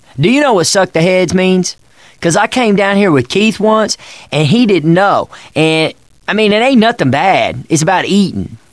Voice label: chat; l4d2 ellis

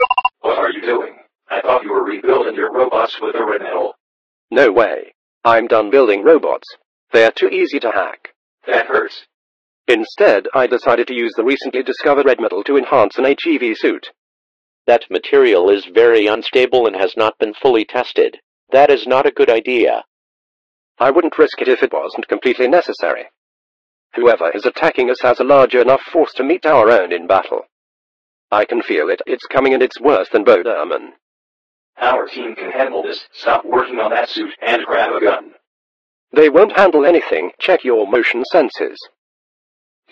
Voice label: radio; sven; resistance; dialogs

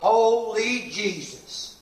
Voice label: cheer; sven; sgt hartmann voice

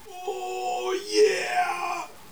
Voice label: Cheer

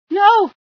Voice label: female sci fear

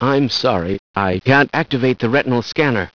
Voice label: no scanner, scientist

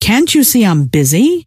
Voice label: im busy, scientist female

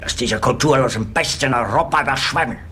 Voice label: chat

